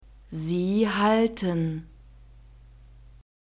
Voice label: "sie halten", German; verbs present tense irregular